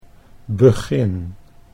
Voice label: "begin", Dutch